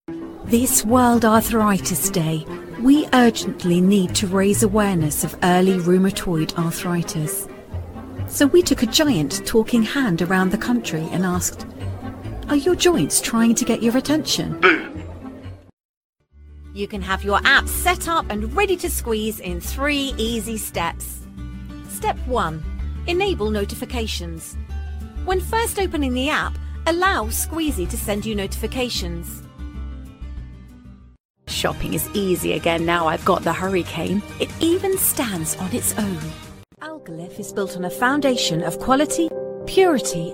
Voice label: VO Commercial Medical

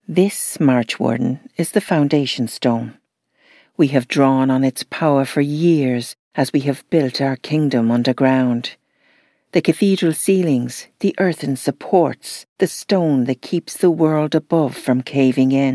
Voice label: Irish Queen

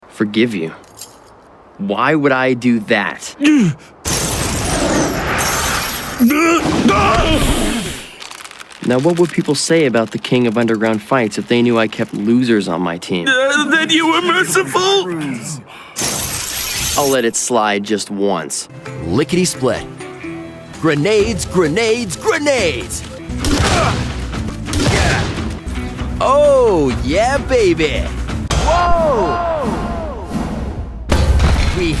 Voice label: Anime and Videogame

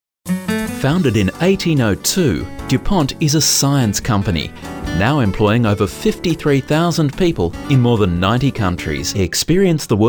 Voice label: Telephone System On Hold IVR

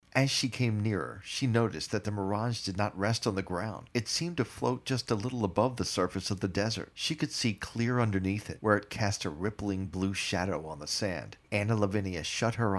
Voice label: audiobooks

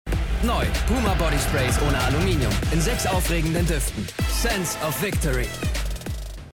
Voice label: TV spot fragrance; PUMA

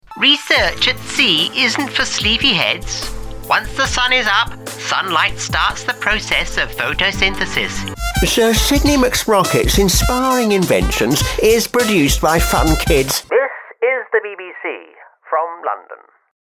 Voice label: character

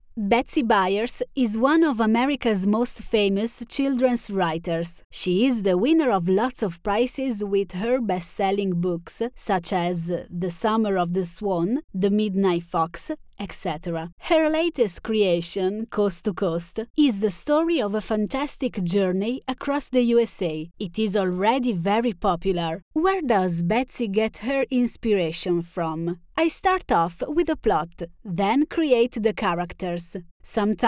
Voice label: english narrative

